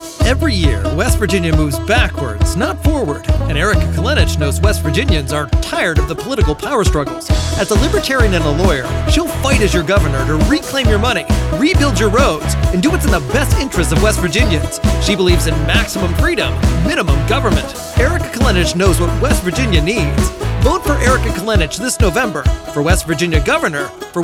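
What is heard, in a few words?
Libertarian Image Ad, male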